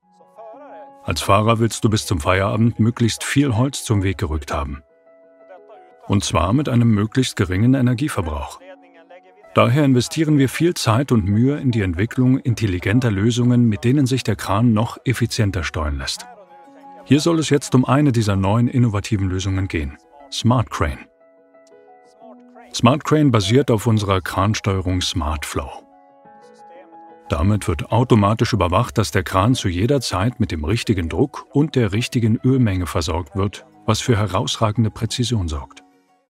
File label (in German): Hoerprobe Over Voice, Sprecher; Smart Crane